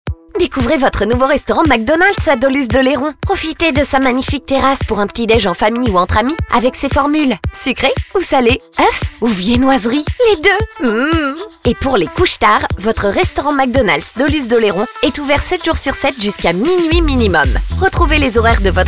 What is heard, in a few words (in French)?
Spot; McDonalds à Dolus dOléron